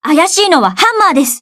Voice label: confident